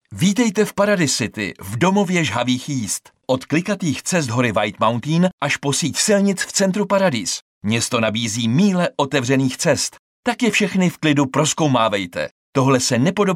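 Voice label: Male Czech commercial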